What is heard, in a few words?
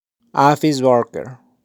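"Office Worker", pronunciation; Profesiones; professions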